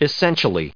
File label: essent, us